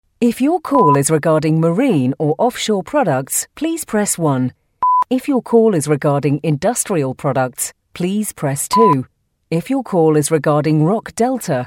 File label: UK, Messaging